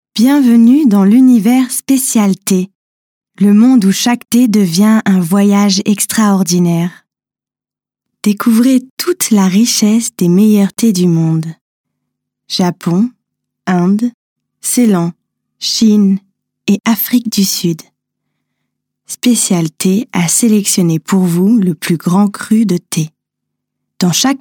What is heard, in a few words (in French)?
werbung; fr